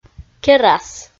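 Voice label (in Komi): "керас", Komi, female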